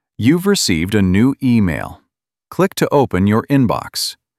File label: New Email, Narrations English